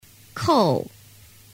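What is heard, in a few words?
"kou", Chinese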